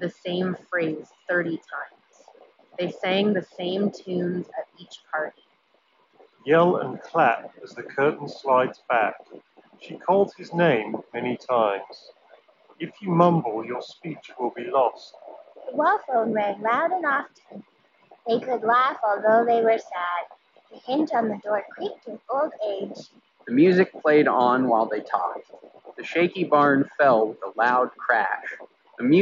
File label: Sony WH CH510 Wind microphone sample